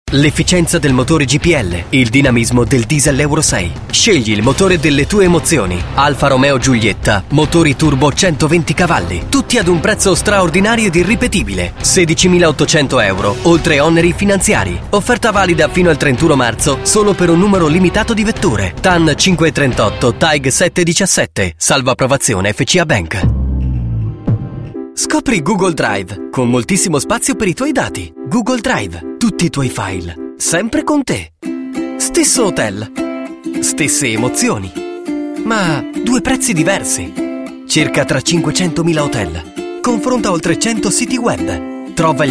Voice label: spot